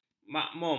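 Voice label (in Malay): "makmum", Malay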